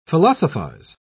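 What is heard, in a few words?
"philosophise", British English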